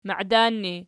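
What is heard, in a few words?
"maidan" ergative, Archi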